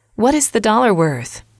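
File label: what is the dollar worth, English, female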